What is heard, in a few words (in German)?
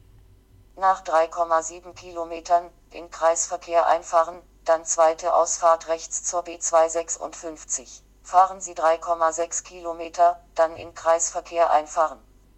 roboterstimme; garmin